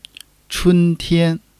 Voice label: "chun1 tian1", Chinese